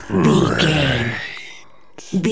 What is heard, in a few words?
zombie voice brains